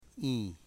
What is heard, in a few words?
"eye", Foe